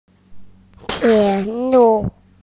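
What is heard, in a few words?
"tie3 lu4", Mandarin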